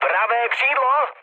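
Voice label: right wing, Czech, mood med; War Thunder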